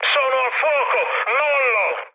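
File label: Italian on fire mood med, speech; War Thunder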